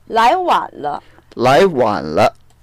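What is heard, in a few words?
"lai2wan3le", Mandarin Chinese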